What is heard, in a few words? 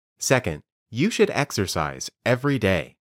Dictation